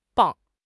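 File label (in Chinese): "磅", Chinese; HSK6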